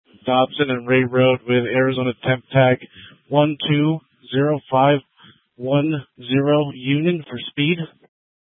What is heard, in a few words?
Sheriffs Office, scanner